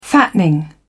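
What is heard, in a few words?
"fattening", British English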